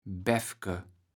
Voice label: "Bäffke", platt

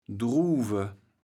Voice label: "Druuwe", platt

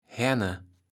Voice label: "Haenne", Platt

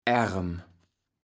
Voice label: "Aerem", platt

